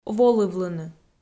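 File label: "волывлыны", Komi, female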